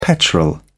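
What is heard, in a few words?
"petrol", English, British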